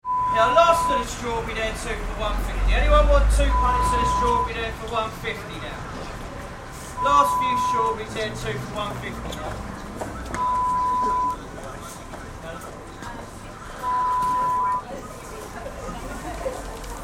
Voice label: Market trader